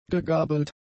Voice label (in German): "gegabelt", german; adjectives